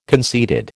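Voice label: "conceited", American English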